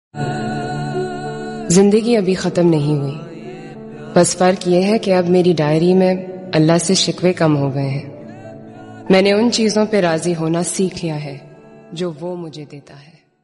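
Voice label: poetry; shayari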